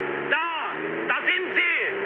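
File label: German enemy detected mood low, speech; War Thunder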